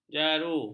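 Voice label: "jaru", Malay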